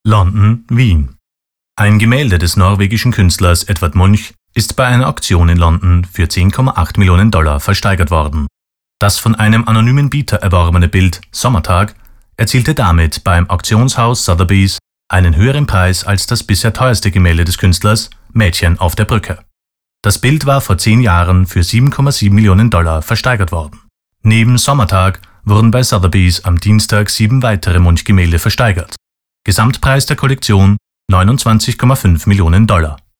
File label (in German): nachrichten